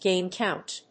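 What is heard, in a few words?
"game count", English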